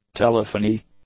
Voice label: "telephonee", english; nouns